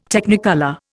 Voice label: "Technicolor", Indian